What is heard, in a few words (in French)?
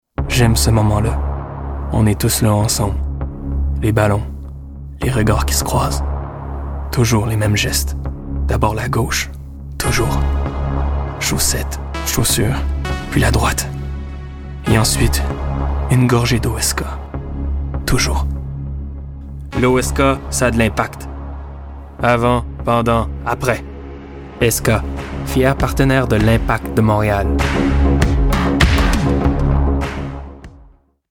narration fr qc naturel grave serieux adulte; eska